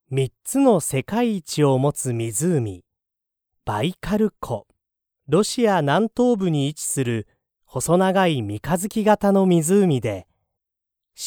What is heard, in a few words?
male japanese voice over talent narrative